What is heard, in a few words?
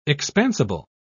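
"expansible", British English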